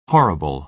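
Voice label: "horrible", American English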